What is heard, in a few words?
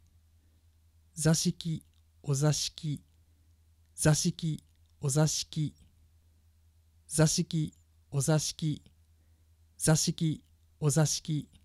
"zashiki" "ozashiki"